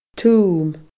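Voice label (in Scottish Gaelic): "tum", Scottish Gaelic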